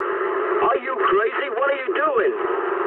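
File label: English player killed ally mood med; War Thunder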